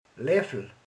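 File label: "kanál", Hungarian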